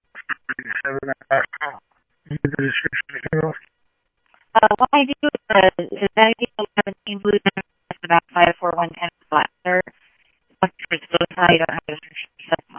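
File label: police radio scanner traffic, Mesa Simulcast; MESA PD 1 Fiesta; MesaPoliceDep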